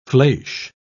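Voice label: "fleche", British English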